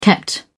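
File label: "kept", British English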